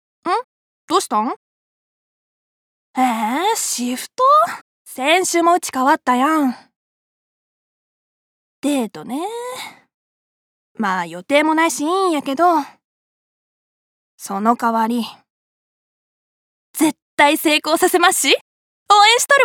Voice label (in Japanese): 金沢弁; 方言